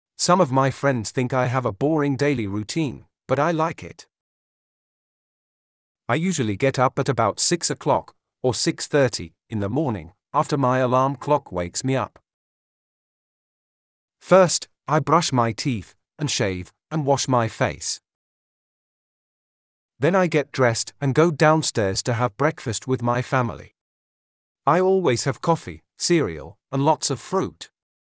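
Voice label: British; lesson daily routine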